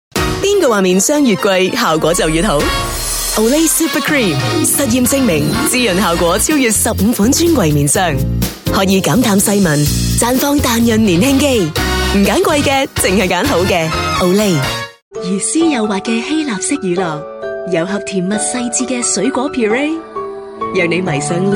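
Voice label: Cantonese, TV commercial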